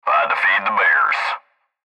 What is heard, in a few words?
Cb Trucker Radio; Blastwave